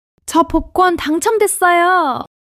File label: excitement